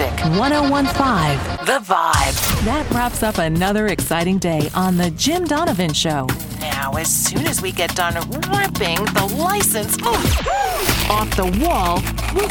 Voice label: Rock Radio Imaging